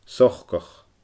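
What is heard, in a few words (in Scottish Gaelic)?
"socach", Scottish Gaelic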